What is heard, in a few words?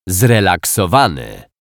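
"zrelaksowany", Polish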